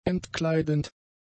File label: "entkleidend", German; adjectives